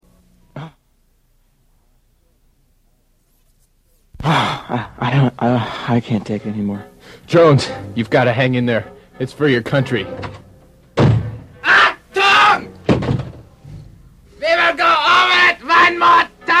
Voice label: promo auction